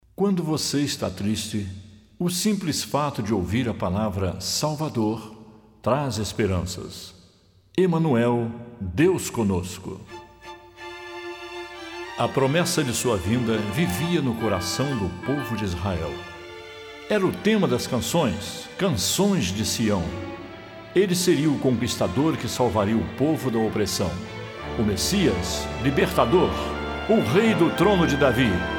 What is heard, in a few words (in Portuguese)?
Narração